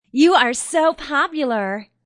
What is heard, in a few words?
Woman Says You're So Popular; Talking Sound Effects